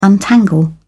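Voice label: "untangle", British English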